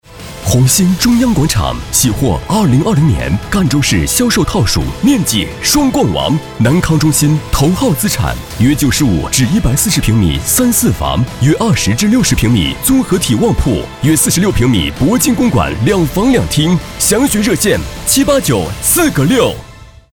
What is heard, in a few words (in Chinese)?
广告浑厚高昂房产; 红星中央广场